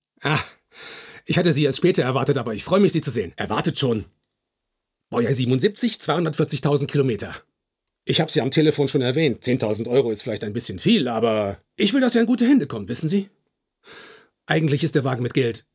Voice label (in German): Synchron Realfilm